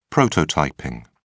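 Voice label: "prototyping", British English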